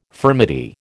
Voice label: "furmety", American

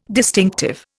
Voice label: "distinctive", Indian

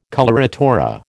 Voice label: "coloratura", American

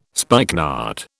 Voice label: "spikenard", British

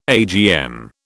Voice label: "AGM", British

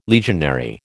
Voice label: "legionary", American

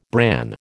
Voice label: "bran", American

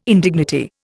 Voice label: "indignity", Indian

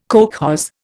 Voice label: "kolkhoz", Indian

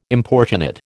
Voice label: "importunate", American

